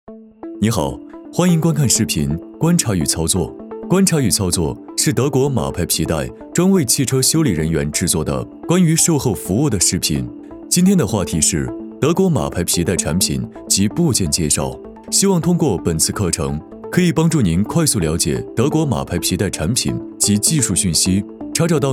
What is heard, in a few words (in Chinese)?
德国马牌皮带解说